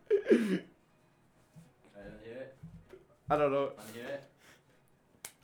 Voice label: recordin Mic Input; flstudio; Vocals